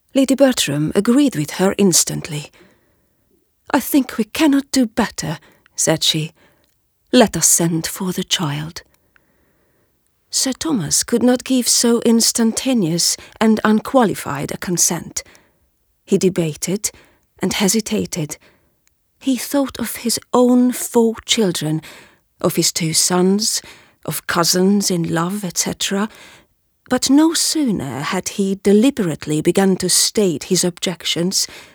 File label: English Story Voice